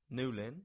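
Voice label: "Newlyn", English; place-names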